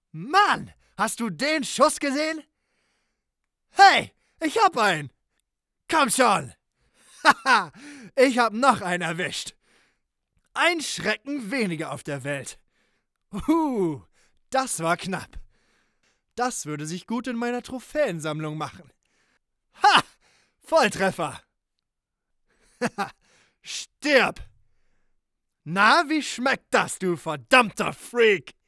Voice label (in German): Sprechprobe Action Videogame